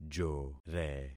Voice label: "jore", concat syllables; Affixing experiment

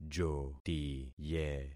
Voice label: "jotiye", concat syllables; Affixing experiment

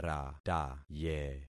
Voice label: "rataye", concat syllables; Affixing experiment

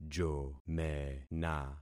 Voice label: "jomena", concat syllables; Affixing experiment

